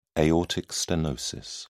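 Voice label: "aortic stenosis", British English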